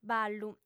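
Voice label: "ballu", Sardinian, female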